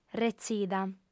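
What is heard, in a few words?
"retzida", female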